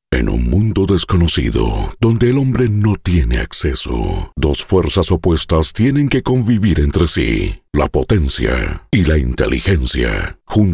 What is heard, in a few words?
Spanish Latin American Neutral Deep Male